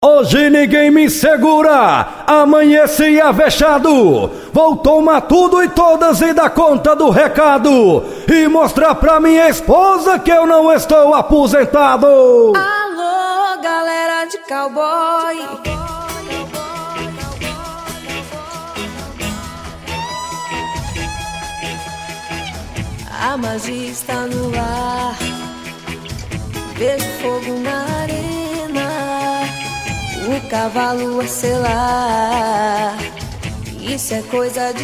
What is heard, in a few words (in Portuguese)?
locutor de rodeio poesia